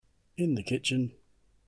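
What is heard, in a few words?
"in the kitchen", English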